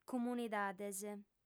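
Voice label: "comunidades", female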